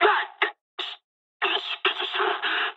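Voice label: Japanese damaged pilot mood low; War Thunder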